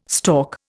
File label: "stork", Indian